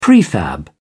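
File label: "prefab", English, British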